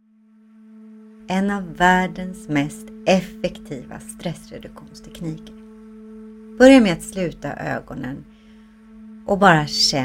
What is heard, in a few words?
yoga breathing